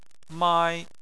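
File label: "mai", Chinese, first tone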